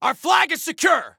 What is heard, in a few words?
Flag Secure, Soldier Male; Soldier Male 01